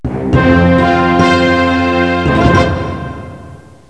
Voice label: news